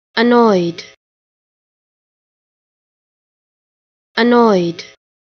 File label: Annoyed Pronunciation